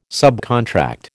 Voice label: "subcontract", American